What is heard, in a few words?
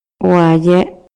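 Maya, male; PALABRAS CON DISTINTAS FUNCIONES; INALI; MayaYuc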